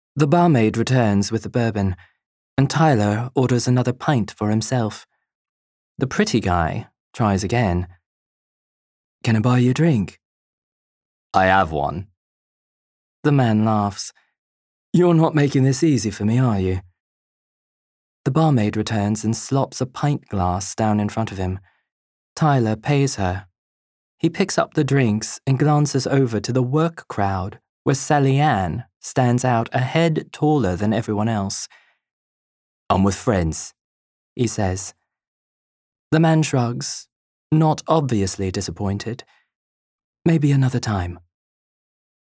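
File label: 3rd person Mystery Crime Thriller Modern London British RP Cockney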